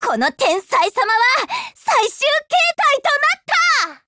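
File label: Upgrade, Japanese; Cheeta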